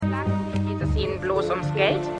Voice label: Reporterin; The Morning Show; Stimmproben; USA

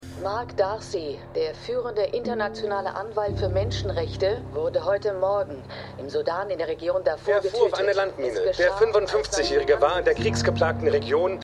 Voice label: Reporterin Reporter; Bridget Jones 4

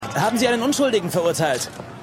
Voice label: Reporter, Stimmproben; Law & Order

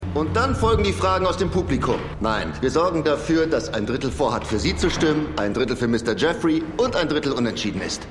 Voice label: Wahlduellmoderator; Slow Horses Ein Fall fuer Jackson Lamb

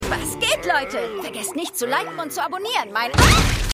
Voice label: Influencerin; Futurama; Hulu

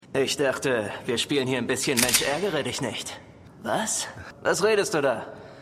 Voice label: Mafioso; Law & Order

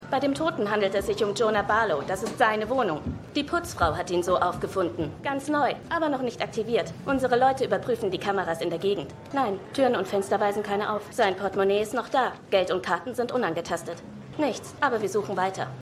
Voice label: Polizistin; Law & Order

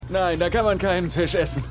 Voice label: Junger Pilger

